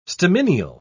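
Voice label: "stamineal", British English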